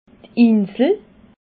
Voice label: "insel", alsace

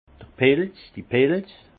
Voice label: "pils", Alsace; vin; champignon

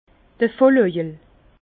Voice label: "vollojel", Bas Rhin; vin; ivrogne

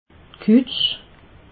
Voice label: "kutsch", alsace

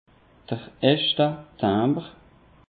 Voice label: "hechtetimbre", alsace; peche

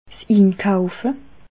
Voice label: "inkaufe", alsace

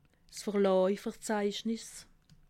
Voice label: "verlaasverzeichnis", alsace

